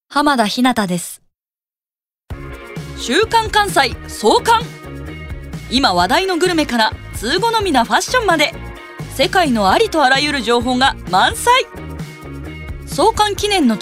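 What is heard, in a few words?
commercial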